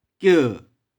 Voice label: "kieu2", 玉環

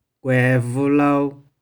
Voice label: "kuae1vu3lou3", 玉環

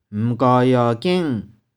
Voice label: "m3kau3iau3king6", 玉環

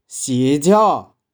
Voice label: "shie1jiau1", 玉環

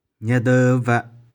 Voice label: "nih4deu1veh4", 玉環

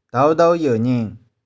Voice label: "dou3dou3ieu2ning6", 玉環

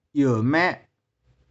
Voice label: "ieu2mae6", 玉環

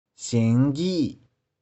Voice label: "shing1gi1", 玉環

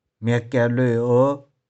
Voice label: "mih4kih4loe2o5", 玉環

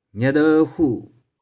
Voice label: "nih4deu1hu2", 玉環

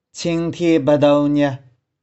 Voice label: "ching1thie1beh4dou3nih4", 玉環

